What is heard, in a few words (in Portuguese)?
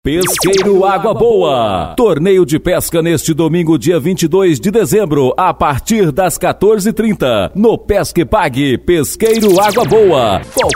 gravação de offs, locução para propaganda